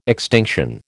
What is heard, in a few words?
"extinction", American